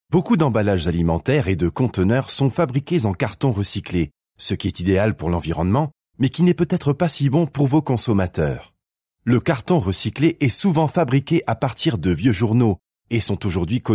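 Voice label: French, male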